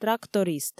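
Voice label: "тракторист", Komi, female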